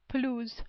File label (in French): "pelouse", French